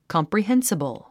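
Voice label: "comprehensible", English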